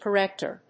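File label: "corrector", English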